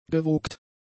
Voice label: "gewogt", German; adjectives

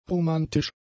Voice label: "romantisch", German; adjectives

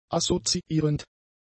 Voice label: "assoziierend", German; adjectives

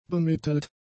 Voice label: "bemittelt", German; adjectives

